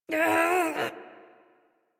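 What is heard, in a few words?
horse stop adult, English; Warframe; Duviri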